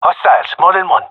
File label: sees enemy, gendarmerie male; vo